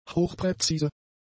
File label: "hochpräzise", German; adjectives